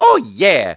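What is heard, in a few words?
"oh yeah", male; luigi; mario kart wii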